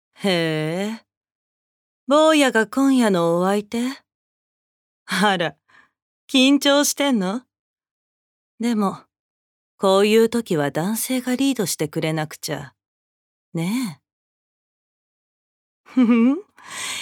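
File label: voice sample, woman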